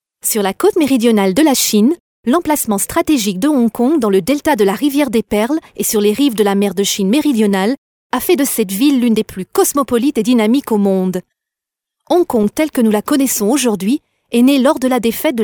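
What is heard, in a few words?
French female corporate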